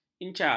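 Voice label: "incar", Malay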